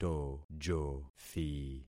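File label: "tojothi", concatenated syllables; Affixing experiment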